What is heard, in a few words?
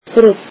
"brown", Icelandic